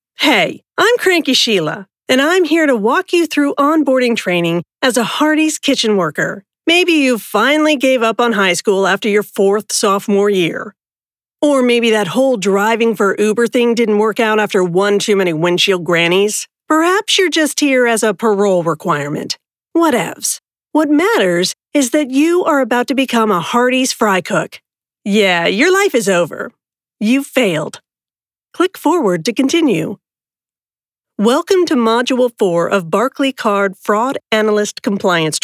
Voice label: E LEARNING VOICE ONLY